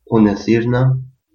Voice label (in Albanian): my name is, albanian gheg; Unê thirrna ...